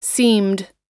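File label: "seemed", US English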